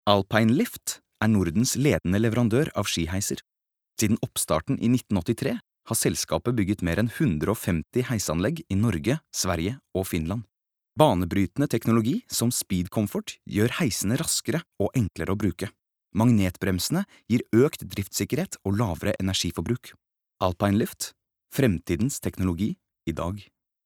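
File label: no corporate, Norwegian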